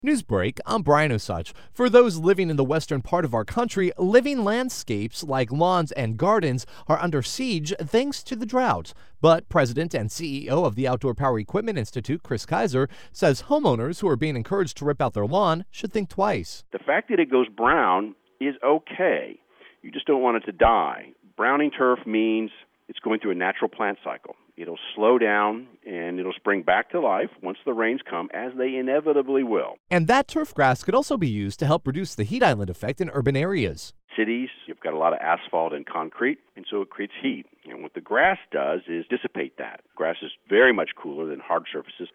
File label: Radio Spot; brown is okay